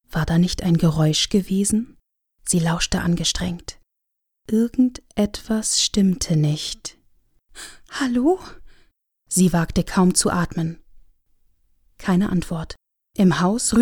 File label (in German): Sprachprobe Home Studio